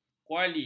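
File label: "kuali", Malay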